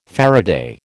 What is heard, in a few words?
"faraday", American